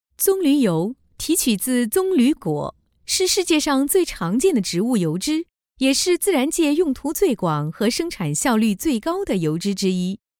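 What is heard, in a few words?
Chinese, General; GoLocalise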